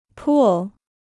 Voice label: "pool", English

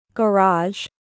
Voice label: "garage", English

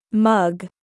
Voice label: "mug", English

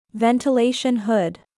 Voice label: "Ventilation hood", English

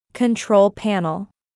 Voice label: "control panel", English

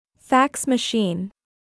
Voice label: "fax machine", English

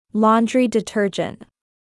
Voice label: "laundry detergent", English

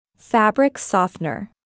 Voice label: "fabric softner", English

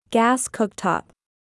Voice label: "gas cooktop", English

